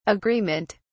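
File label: "agreement", British English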